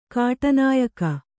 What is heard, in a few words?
"ಕಾಟನಾಯಕ", kannada